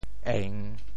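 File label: "eng3", Teochew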